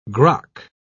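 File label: "grok", British English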